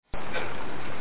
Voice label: british male help me